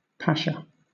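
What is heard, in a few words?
"pasha", British English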